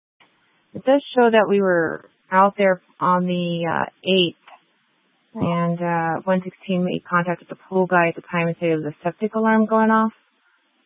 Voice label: Dispatch, scanner

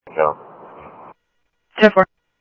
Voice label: Phoenix PD, scanner audio; ThompsonPeakHigh

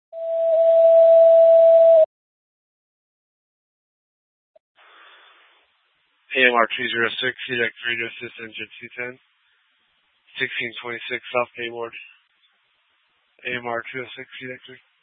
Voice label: FD Dpts VHF 156.240 Patch

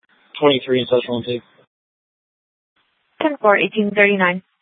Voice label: Sheriff, scanner; Maricopa County (P25) - Thompson Peak; Shrf Northeast

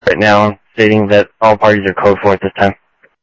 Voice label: scanner audio, Sheriff

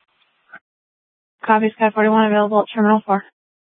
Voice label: Fire, scanner; Regional Wireless Cooperative (RWC) - Thompson Peak High; PHX Fire

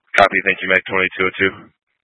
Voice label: Fire Departments Mesa Simulcast; TOPAZ

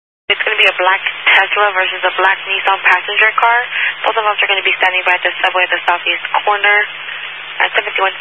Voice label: Highway Patrol, Phoenix Metro West Area, scanner

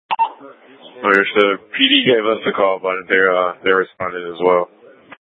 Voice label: Light Rail Ops, scanner

